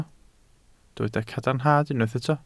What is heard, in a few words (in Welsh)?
"cadarnhad", Welsh